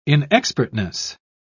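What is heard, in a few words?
"inexpertness", British English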